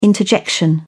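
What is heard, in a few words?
"interjection", English, British English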